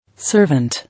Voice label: "Servant", English

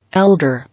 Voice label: "Elder", English

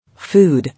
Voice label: "Food", English

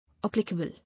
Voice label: "Applicable", English

